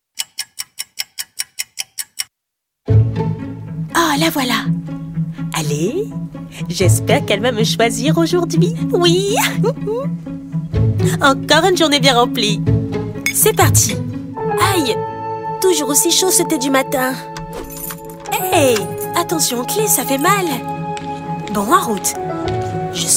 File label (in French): Voix off film publicitaire internet JOAILLERIE; 24h avec votre BAGUE